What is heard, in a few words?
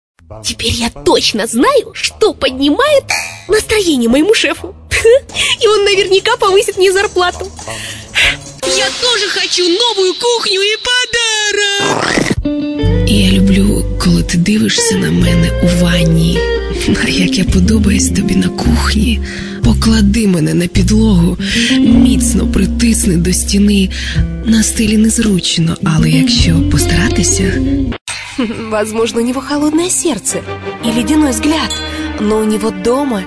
voice female